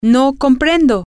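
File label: I dont comprehend, Spanish, female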